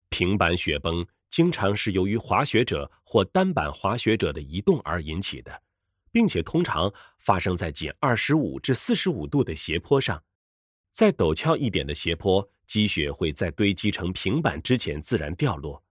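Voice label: Chinese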